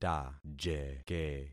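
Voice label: "tajeke", concatenated syllables; Affixing experiment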